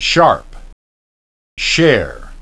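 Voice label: "sharp" "share", English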